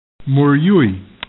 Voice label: Ashamed MerYui, Kumeyaay

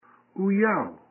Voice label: Acquaintance UuYaow Knows Someone, Kumeyaay

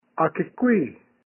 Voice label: Ask Akekwii, Kumeyaay

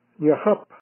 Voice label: All Day Enyaa Wehap, Kumeyaay

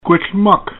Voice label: "QuilthMuck", Kumeyaay

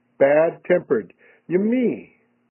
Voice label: "Niimii", Kumeyaay; Bad Tempered

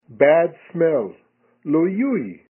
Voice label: "Llyuuy", Kumeyaay; Bad Smell

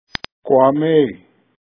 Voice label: Area Above Kwamaay Space Above, Kumeyaay

